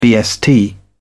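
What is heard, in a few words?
"bst", English, British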